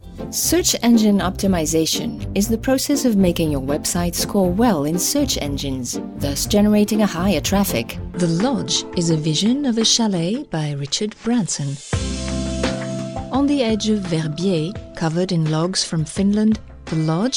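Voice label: Dutch English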